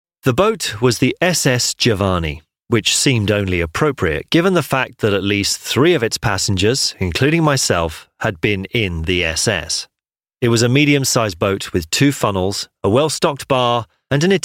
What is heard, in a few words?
english british audiobooks